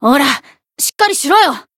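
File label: Voice heal, Japanese; kid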